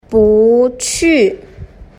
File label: "buqu", Chinese